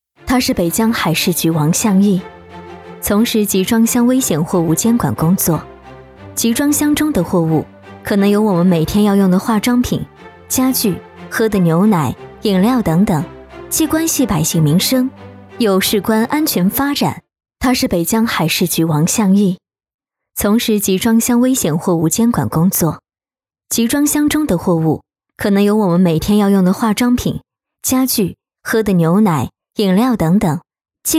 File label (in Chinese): 女 专题 人物介绍